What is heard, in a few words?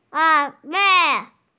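"an1 wei4", Mandarin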